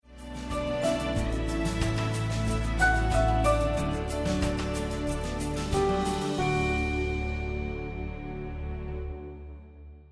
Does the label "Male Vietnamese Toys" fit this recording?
No